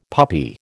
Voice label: "puppy", American